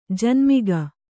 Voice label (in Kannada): "ಜನ್ಮಿಗ", Kannada